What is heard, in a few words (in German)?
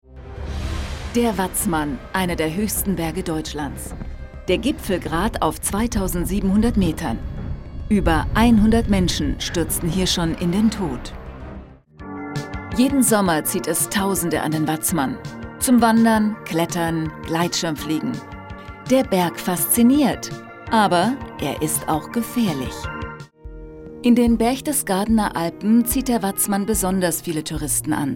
Doku Reportage; Abenteuer Watzmann; ZDF